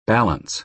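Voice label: "balance", American English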